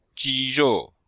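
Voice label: "ji1 rou4", Mandarin Chinese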